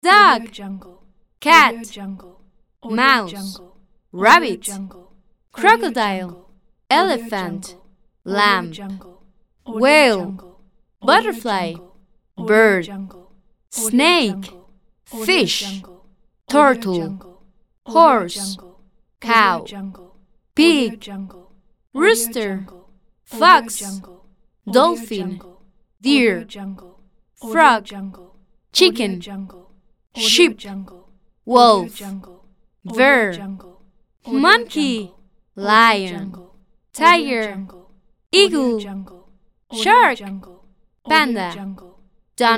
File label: female naming animals pack; medusamusiclab